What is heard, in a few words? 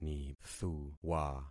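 "nithuwa", concatenated syllables; Affixing experiment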